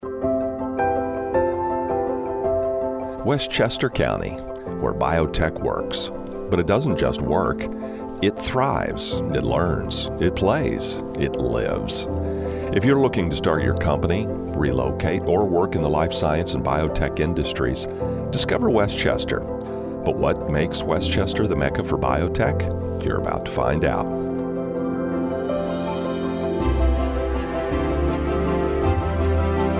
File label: Corporate; Westchester County